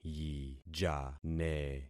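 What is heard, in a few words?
"yijane", concatenated syllables; Affixing experiment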